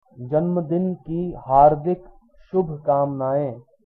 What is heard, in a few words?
birthday, hindi